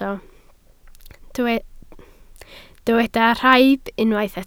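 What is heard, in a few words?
"rhaib" Welsh, spoken word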